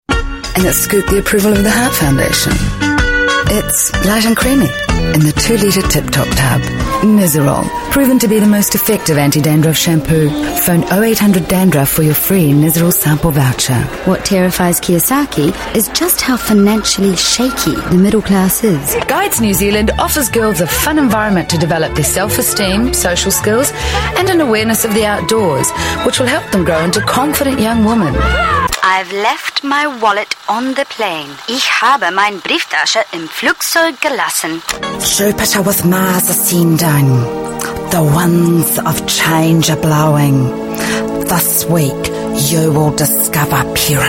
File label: NZ English